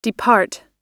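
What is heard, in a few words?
"depart", US English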